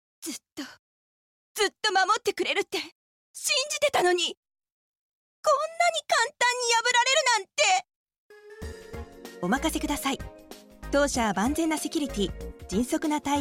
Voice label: female